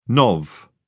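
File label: "nov", British English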